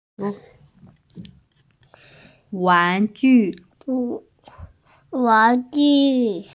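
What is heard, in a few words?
"wan2ju4", Mandarin Chinese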